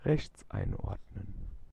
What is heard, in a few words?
Keep Right, German, male